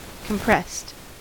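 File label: "compressed", American English; pronunciations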